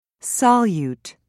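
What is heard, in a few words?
"solute", English, British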